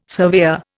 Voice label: "surveyor", Indian

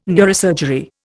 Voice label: "neurosurgery", Indian

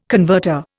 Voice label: "converter", Indian

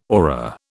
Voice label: "aura", British

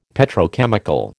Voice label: "Petrochemical", American

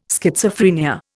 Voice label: "Schizophrenia", Indian

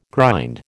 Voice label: "grind", American

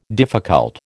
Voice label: "difficult", American

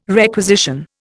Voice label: "requisition", Indian

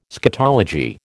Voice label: "scatology", American

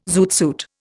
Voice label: "zoot suit", Indian

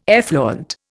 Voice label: "effluent", Indian

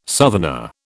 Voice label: "southerner", British

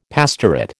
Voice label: "pastorate", American

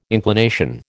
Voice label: "inclination", American

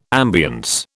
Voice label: "ambience", British

